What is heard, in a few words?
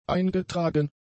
"eingetragen", German; adjectives